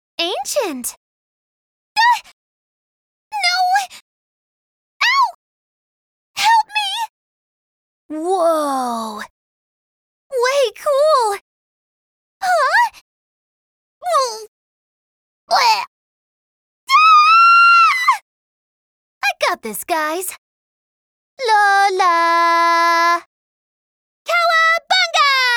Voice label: English Voice Collection; Clear Version; Gotta Protectors; The Complete Trax Volume 1